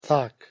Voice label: "thak", Icelandic